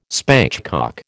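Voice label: "spatchcock", American English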